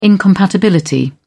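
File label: "incompatibility", British English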